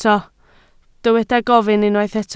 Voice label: "gofyn", Welsh